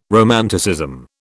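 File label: "romanticism", British English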